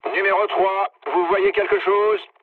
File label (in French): chat, speech fr